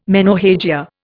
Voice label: "menorrhagia", Indian

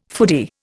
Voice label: "foodie", Indian

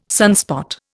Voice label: "sunspot", Indian

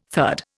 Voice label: "third", Indian

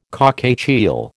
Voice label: "cockatiel", American

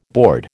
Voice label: "board", American

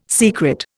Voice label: "secret", Indian

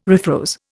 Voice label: "refroze", Indian

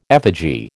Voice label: "effigy", American

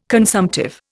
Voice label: "consumptive", Indian

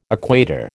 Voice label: "equator", American

